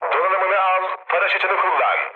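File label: Turkish bailout, mood low, speech; War Thunder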